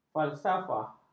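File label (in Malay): "falsafah", Malay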